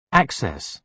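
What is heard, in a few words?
"access", British English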